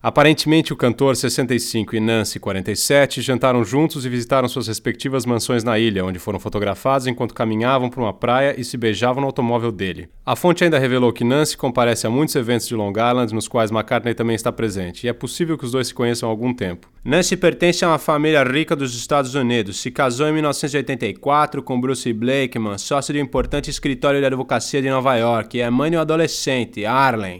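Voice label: Portuguese, General; GoLocalise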